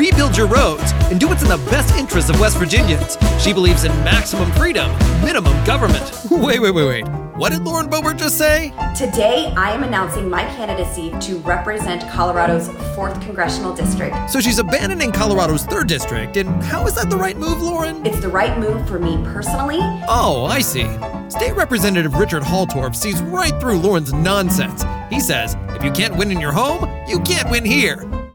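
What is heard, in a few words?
Nonpartisan Political Ads, male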